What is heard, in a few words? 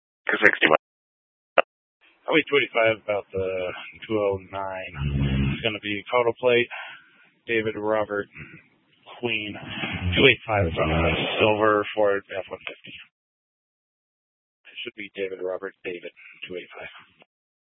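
Troop 2A Dispatch, scanner audio recording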